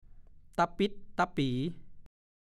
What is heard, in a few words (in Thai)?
ตะปิ๊ดตะปี่, ภาษาโคราช; กำลังผลิใบ เริ่มผลิใบ